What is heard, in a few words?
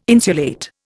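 "insulate", Indian English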